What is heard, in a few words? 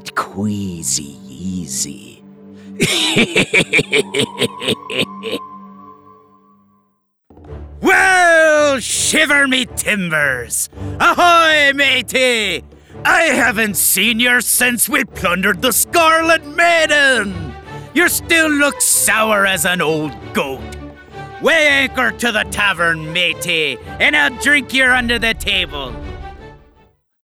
Pirate Compilation